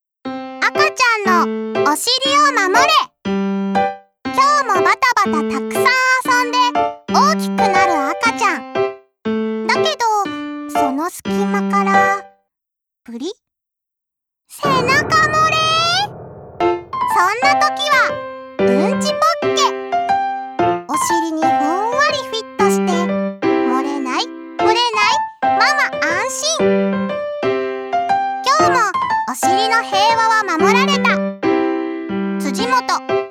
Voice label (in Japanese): オムツＣＭナレーション